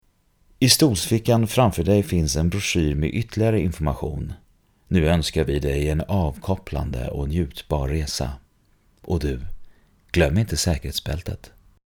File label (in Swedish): Sv informativ